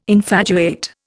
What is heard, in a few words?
"infatuate", Indian